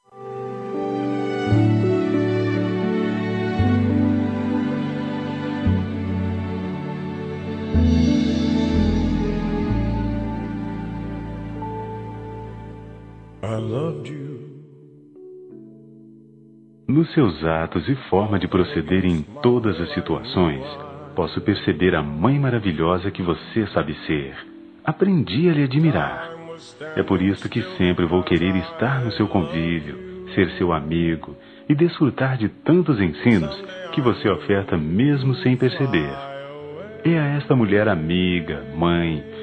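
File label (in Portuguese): Neutra, male, Telemensagens Dia das Mães; Masc; Telemensagens 2017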